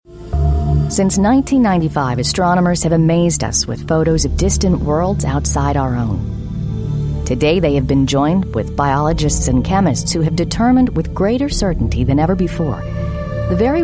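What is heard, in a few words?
narration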